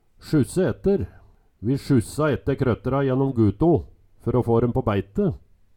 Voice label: "sjusse etter", Numedalsmål; Eksempel på bruk Vi sjussa etter krøttra jennom guto fer å få døm på bæite

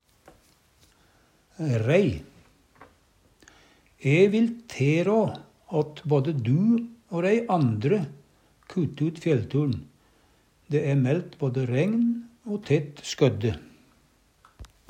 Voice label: rei, Numedalsmål

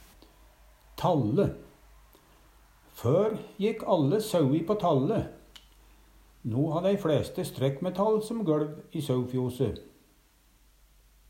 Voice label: talle, Numedalsmål; Før jekk all sau på talle, no ha dei flæste strekkmetall som gøLv i saufjose.

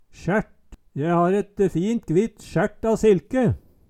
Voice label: "sjært", Numedalsmål; Eksempel på bruk Je har et fint gvitt sjært a silke

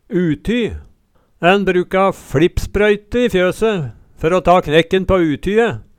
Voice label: uty, Numedalsmål

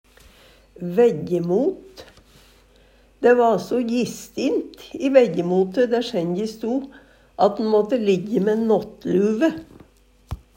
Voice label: "vejjemot", Numedalsmål; Dæ va so jistint i vejjemote der senje sto at'n måtte lijje mæ nåttluve

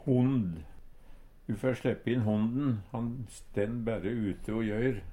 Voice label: "hond", Numedalsmål; Du fær sleppe inn honden, han stenn bærre ute o jøyr.

